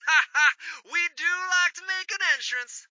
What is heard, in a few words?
Radio Chatter "Haha we do like to make an entrance..!"